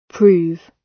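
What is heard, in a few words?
"prove", British English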